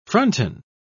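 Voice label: "fronton", British English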